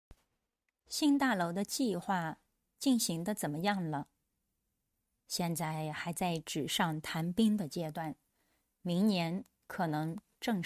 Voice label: denoised